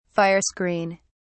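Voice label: "fire screen", British English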